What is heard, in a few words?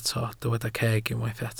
"ceg", Welsh